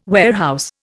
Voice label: "warehouse", Indian